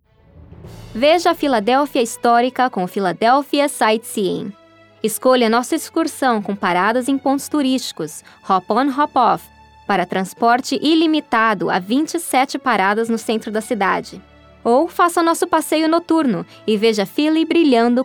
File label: Portuguese Trailer